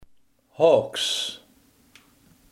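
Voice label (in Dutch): haaks, Limburgse gesproken woordenboeken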